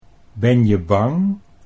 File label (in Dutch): "ben je bang", Dutch